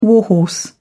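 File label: "warhorse", English, British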